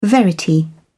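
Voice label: "verity", British English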